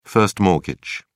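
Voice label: "first mortgage", British English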